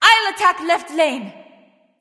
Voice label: vox self attack; smite; arachne

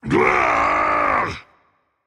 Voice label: ouch rage; chaac

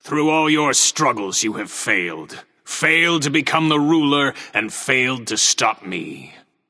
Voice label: taunt directed; set; Smite; Horus

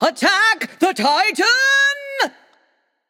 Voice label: vox attack m; smite; cupid

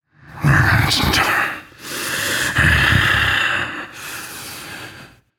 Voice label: grumble; smite; gilgamesh

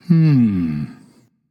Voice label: thinking; Smite; bacchus

